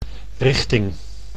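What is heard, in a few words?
"richting", Dutch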